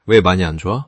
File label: neutral